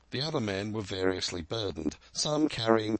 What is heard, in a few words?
DePulse Robust Resynthesis; VowelBender; Source Formant examples